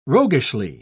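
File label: "roguishly", British English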